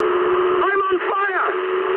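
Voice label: English on fire mood high; War Thunder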